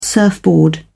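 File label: "surfboard", British English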